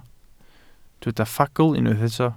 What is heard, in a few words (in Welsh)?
"ffagl" Welsh